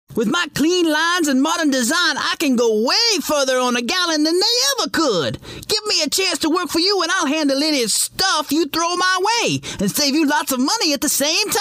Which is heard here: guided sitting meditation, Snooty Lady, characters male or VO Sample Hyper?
characters male